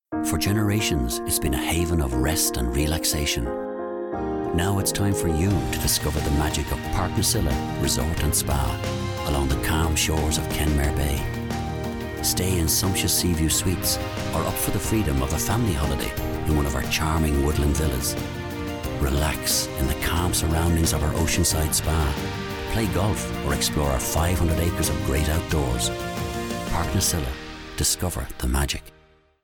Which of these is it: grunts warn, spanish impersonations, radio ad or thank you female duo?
radio ad